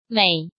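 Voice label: "Měi", Chinese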